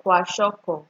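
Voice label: "kwashiokor", Nigerian Pidgin, female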